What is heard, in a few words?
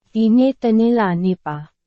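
Burmese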